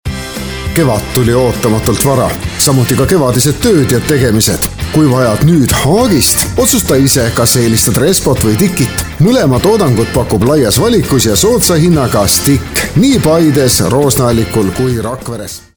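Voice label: Estonian, male, commercial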